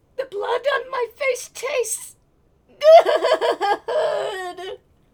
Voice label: Female Reactions; a dump in the dark